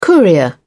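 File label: "courier", British English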